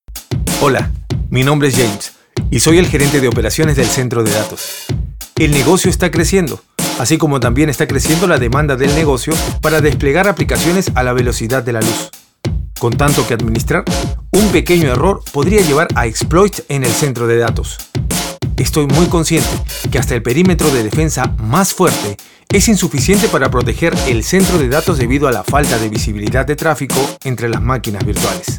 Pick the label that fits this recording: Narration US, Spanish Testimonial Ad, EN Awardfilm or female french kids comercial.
Spanish Testimonial Ad